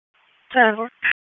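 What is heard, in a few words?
Police Northeast District Dispatch, p25; Raleigh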